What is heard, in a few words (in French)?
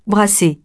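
"brasser" French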